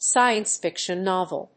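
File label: "science-fiction novel", English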